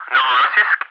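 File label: "Novorossiysk", English, ATC callsign; DCS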